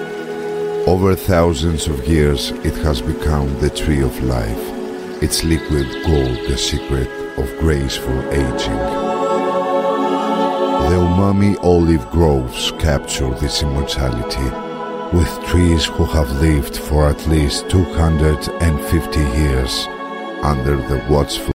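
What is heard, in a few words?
Greek Commercial English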